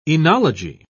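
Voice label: "oenology", English, British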